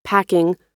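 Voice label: "packing", American English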